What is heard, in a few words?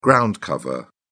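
"ground cover", British English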